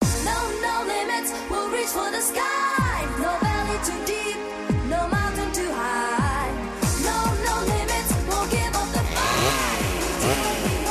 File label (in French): radio pub spot